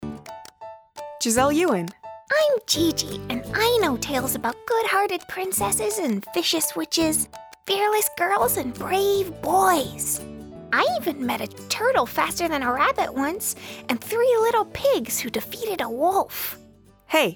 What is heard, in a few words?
Animation Demo